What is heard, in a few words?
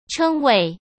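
"称为", Mandarin Chinese; chinese flashcards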